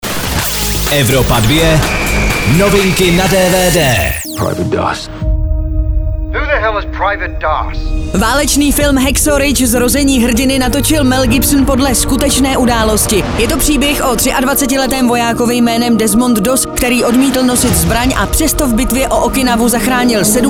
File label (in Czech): dvd news; evropa2; hacksaw ridge zrozeni hrdiny